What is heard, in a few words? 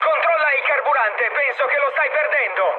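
Italian tank damaged mood med, speech; War Thunder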